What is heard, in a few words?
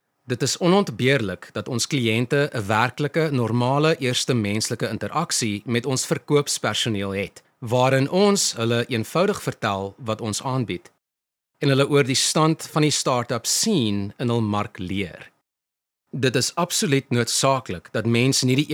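Afrikaans, male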